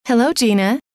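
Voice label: "hello", female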